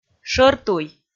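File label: "шӧртуй", Komi, female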